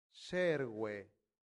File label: "serve", latin; santillana